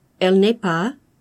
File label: "elle n'est pas", French; She is not